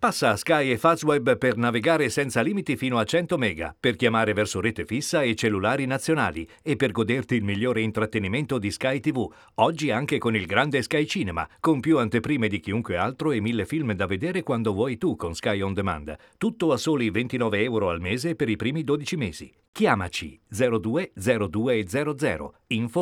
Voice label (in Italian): spot radio; sky